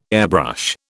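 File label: "airbrush", British English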